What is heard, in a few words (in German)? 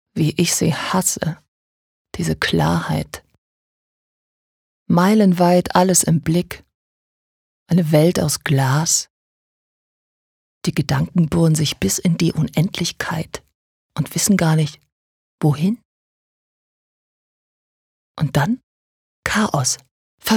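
Schauspiel Energisch; Klarheit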